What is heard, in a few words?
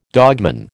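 "dogman", American English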